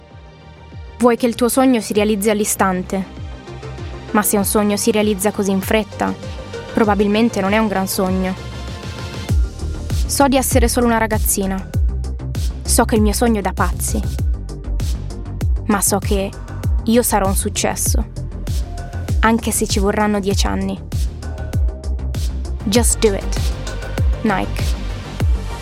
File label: Italian